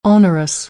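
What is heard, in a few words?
"onerous", American English; AmericanBritish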